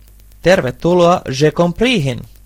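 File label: welcome, Brazilian Portuguese; gcompris-data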